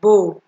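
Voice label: "bow", Nigerian Pidgin, female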